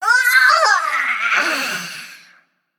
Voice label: Female Water Spirit Death